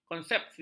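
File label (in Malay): "konsepsi", Malay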